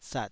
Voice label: "sat3", taiwanese